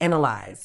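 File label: "analyse", British English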